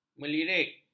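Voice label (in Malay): "melirik", Malay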